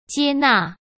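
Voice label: "接纳", Mandarin Chinese; hsk; chinese-flashcards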